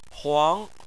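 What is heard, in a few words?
"huang", Chinese, second tone; Chinese Surnames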